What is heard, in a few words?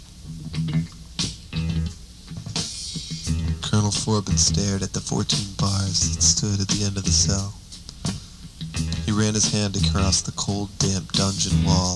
Narrative